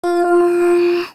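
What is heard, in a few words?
"Ummm", F3, voice, training; 赛马娘; 麦昆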